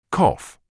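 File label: "cough", British English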